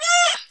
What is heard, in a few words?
jibjab, lo-fi jibber jabber